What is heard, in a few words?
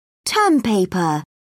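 "term paper", British English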